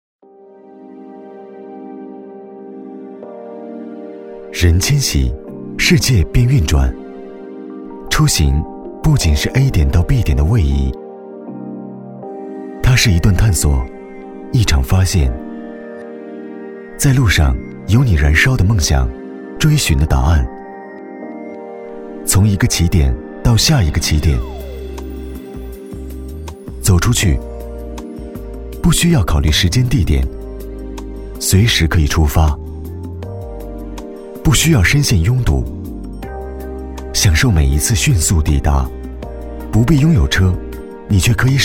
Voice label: 广告 汽车 磁性, 男

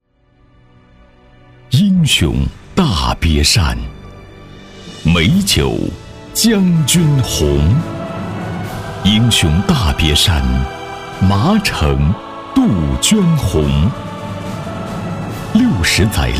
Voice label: 宣传片 城市 大气, 男; 麻城

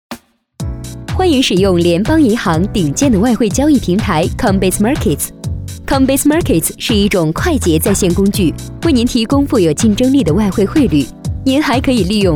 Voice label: 女 宣传片 病毒 银行

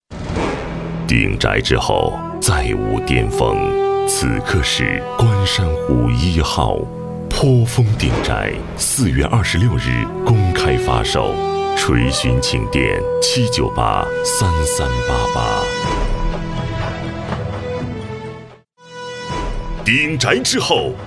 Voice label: 男 广告 厚重; 地产; 观山湖1号

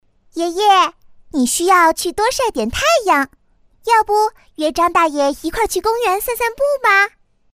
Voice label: 女童声

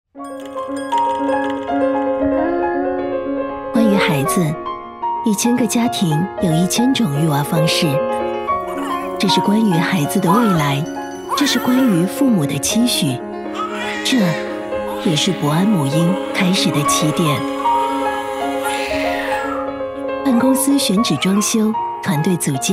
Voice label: 女 广告 品牌形象 母婴品牌 温柔

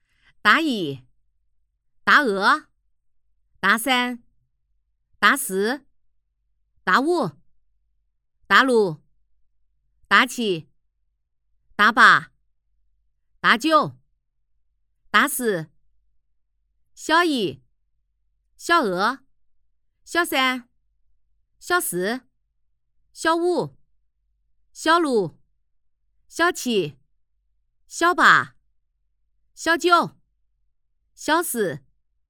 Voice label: 动画 游戏 棋牌女; 桂林棋牌女

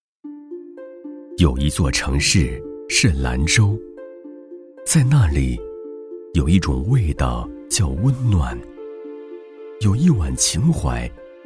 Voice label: 纪录片 美食臊子面, 男; 舌尖

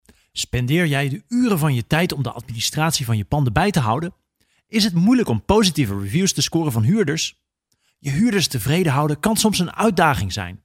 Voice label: 荷兰语男 外语 小语种

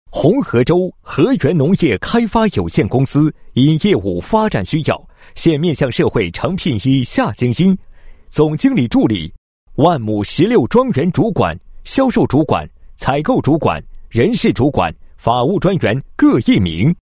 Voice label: 广告 招聘, 男国; 和源招聘

